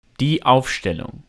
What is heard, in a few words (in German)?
"die Aufstellung", German